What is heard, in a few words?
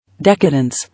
"Decadence", English